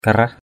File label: "karah" Cjm